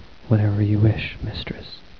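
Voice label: mistress, Male voice, "Whatever you wish, Mistress."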